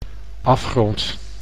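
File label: "afgrond", Dutch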